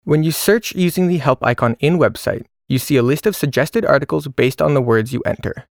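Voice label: English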